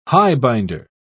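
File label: "highbinder", English, British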